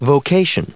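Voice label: "vocation", US English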